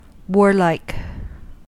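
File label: "warlike", American English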